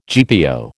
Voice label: "GPO", American

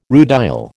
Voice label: "rutile", American

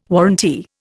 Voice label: "warrantee", Indian

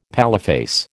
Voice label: "paleface", American

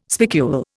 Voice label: "spicule", Indian

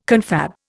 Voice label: "confab", Indian

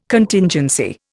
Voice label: "Contingency", Indian

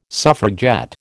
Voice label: "suffragette", American

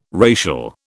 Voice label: "racial", British

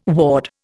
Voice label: "ward", Indian

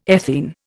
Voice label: "ethene", Indian

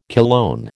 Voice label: "cologne", American

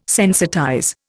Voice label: "sensitize", Indian

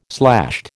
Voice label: "slashed", American

